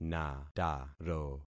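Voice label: "nataro", concatenated syllables; Affixing experiment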